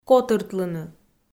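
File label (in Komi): "котыртлыны", Komi-Zyrian, female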